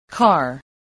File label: "car", spelling